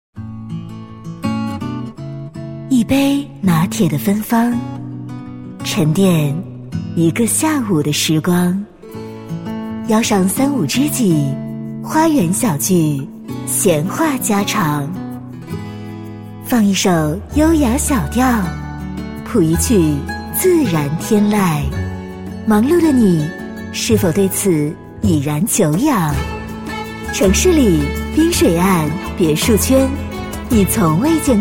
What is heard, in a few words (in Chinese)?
女 广告; 滨水新境界; 国语女声配音